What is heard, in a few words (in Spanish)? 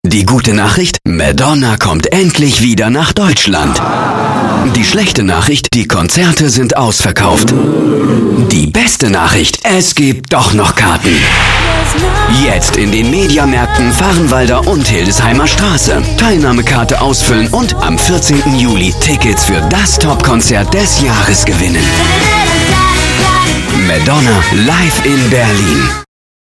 locutor alemán german voiceover; Deutscher Sprecher